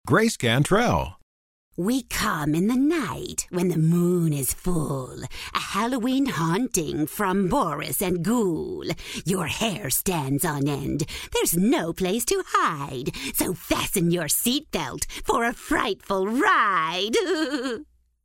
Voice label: WITCH

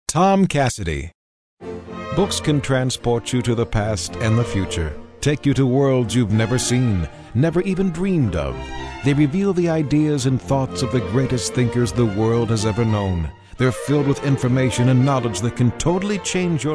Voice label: UPSCALE

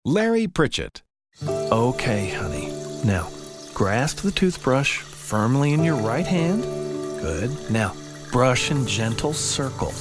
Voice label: FATHERLY, DAD

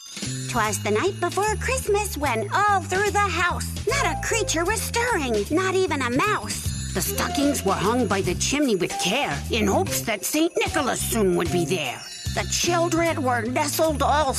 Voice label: Christmas Elf

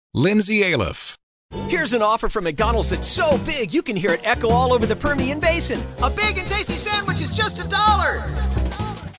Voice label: UPBEAT

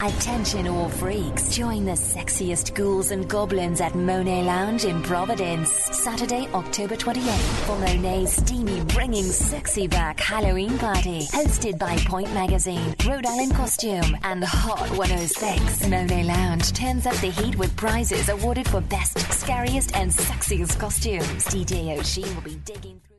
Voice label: BRIT ACCENT

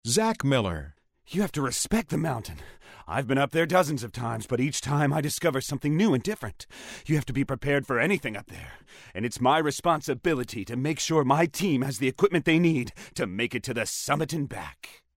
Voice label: DRAMATIC

